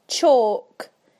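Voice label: "chalk", British English; PictureDictionary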